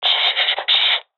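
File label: request quiet, professional female; vo; agents; Source Model Packs; Counter Strike Model Pack; CS2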